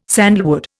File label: "sandalwood", Indian